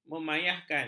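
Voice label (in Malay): "memayahkan", Malay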